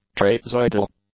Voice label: "trapezoidal", English; adjectives